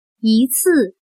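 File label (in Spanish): "一次", chino mandarin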